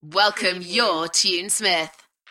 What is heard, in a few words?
UK female Welcome your tunesmith; beanstalk audio